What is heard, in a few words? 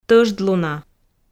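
"тӧждлуна", Komi, female; audio dict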